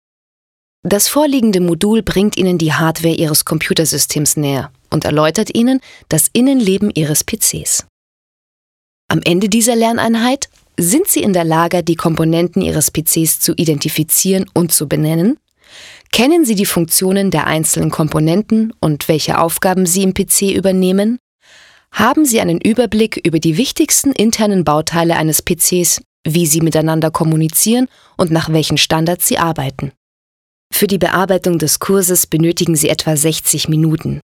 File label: e-learning